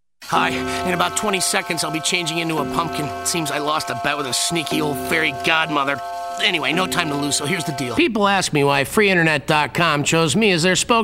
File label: commercial